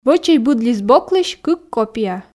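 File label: "вӧчӧй быд лист боклысь кык копия", Komi, female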